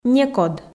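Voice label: "некод", Komi, female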